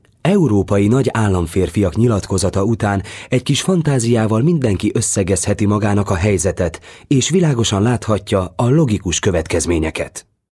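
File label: Hungarian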